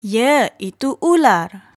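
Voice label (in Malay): "yaitu ular", Malay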